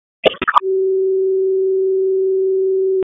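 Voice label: Raleigh Fire Department ALERT, p25; Wake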